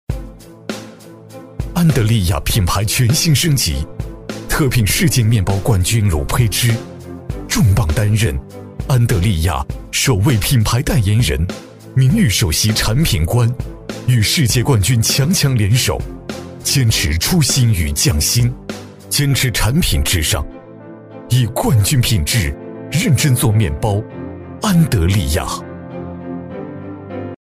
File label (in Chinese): 男-广告-磁性有力, 厚重有力 大气浑厚; 安德莉亚品牌全新升级